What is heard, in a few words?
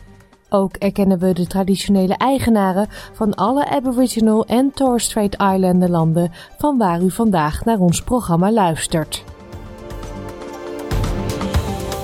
dutch; sbs